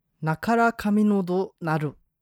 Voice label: 八重山の言葉; ナカカミヌドゥル。; 黄金言葉; 黄金言葉カレンダー

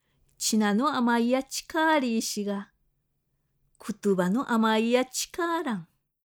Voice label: 沖縄本島中南部糸満の言葉; チナアマイヤ 使チカーリーシガ; クトゥアマイヤ 使チカーラン。; 黄金言葉; 黄金言葉カレンダー

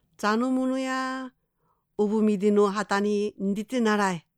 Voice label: 与那国の言葉; ッアヌムヌヤ ウプミディハタニ ンディティナライ。; 黄金言葉; 黄金言葉カレンダー

